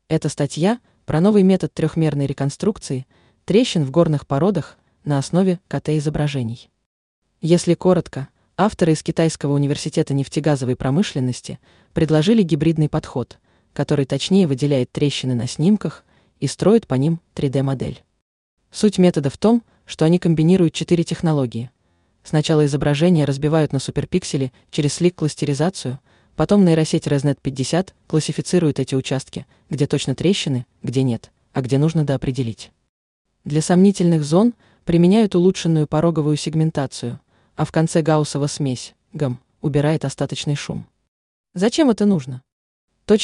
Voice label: speechkit ru ru neutral